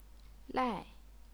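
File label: "lai", Chinese, fourth tone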